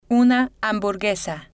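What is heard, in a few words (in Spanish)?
"una hamburguesa", Spanish, female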